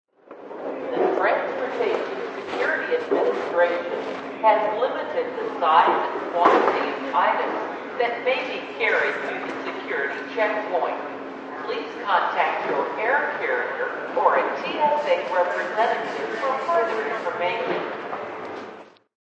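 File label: Airport Security Announcement, Federal regulations regarding xray security; Airport Security Annoucement 1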